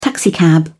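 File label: "taxicab", British English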